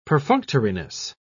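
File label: "perfunctoriness", British English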